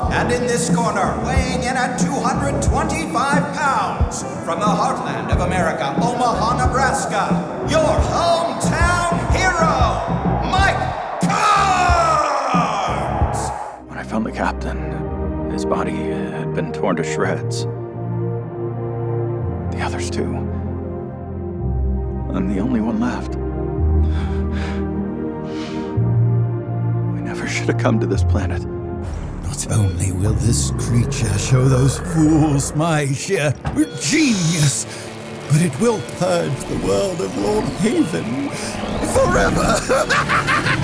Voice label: Character Demo; All-American Storyteller with Midwest Charm